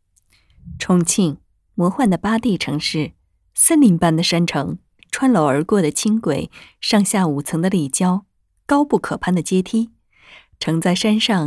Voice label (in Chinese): 专题片 重庆